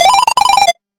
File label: robot talk; Sci-Fi; freepixel